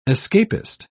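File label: "escapist", British English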